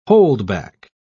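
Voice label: "holdback", British English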